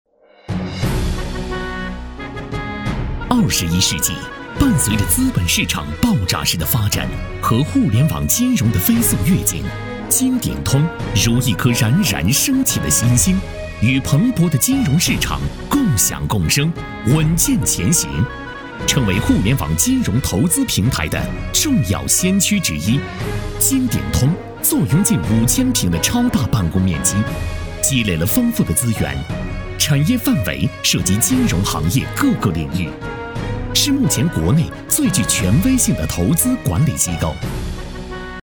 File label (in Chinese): 男 专题 大气; 金鼎通平台